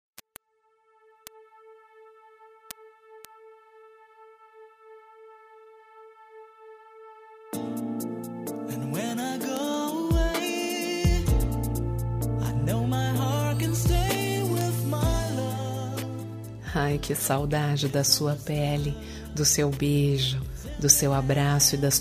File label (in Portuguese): saudades fem, Telemensagem de Saudades, Voz Feminina